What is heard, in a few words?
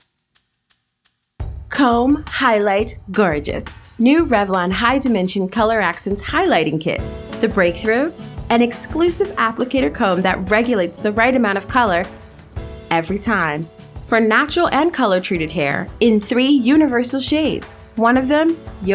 Commercial; Revlon